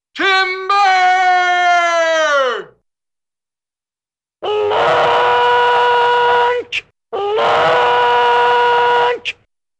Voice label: Man Yells 'timber!' - Factory Whistle Screaming 'lunch'; Cartoon; Hollywood Edge; Cartoon Trax